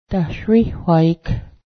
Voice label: force, Cayuga

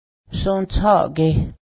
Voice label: "knees", Cayuga

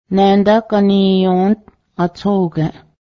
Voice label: here hangs the calendar, Cayuga

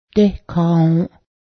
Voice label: crane bird, Cayuga

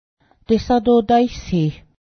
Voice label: comb, Cayuga

